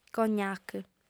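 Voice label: "cognàc", female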